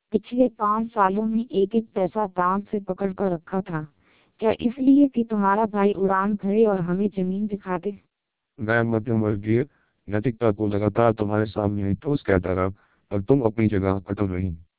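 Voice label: Hindi speech, TWELP 700 bps vocoder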